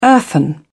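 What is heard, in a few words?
"earthen", British English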